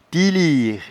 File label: "tieliere", patois jura